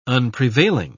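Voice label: "unprevailing", British English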